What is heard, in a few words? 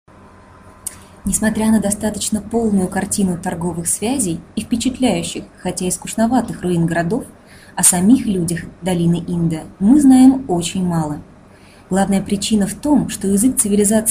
Russian Female